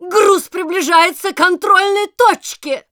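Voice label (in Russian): Announcer cart attacker warning, Russian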